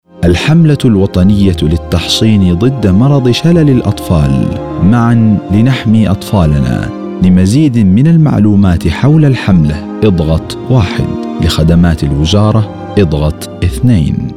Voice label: Arabic Emirati IVR On Hold Voicemail Greetings